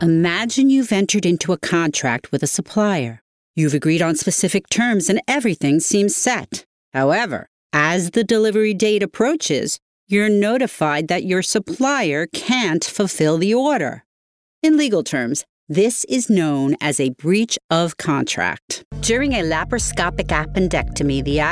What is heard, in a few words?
E LEARNING